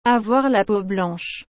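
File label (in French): "avoir la peau blanche", French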